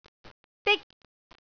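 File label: "dik1", Cantonese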